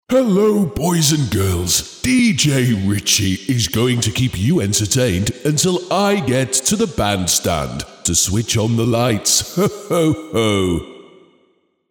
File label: santa dry; santa dry liners